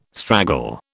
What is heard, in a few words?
"straggle", British